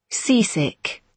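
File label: "seasick", British English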